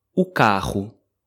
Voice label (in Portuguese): "o carro", Portuguese